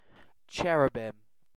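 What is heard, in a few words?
"cherubim", British English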